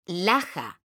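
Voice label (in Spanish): "LAJA" Spanish, female; mekanta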